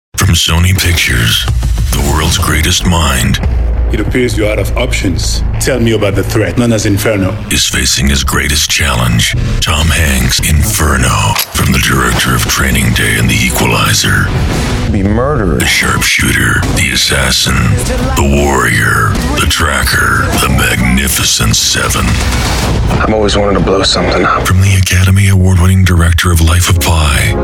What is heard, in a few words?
Trailers